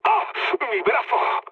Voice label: damaged pilot, mood high, speech; War Thunder